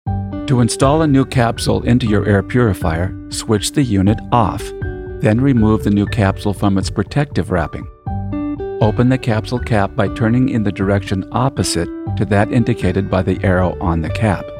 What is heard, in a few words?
Voice Over; Air Processor 2000